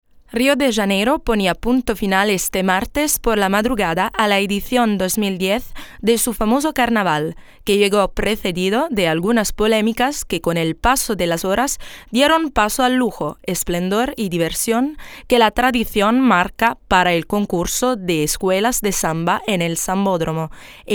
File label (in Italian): SPAGNOLO impostata; FEMMINILE